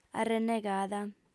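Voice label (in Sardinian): "arrennegada", female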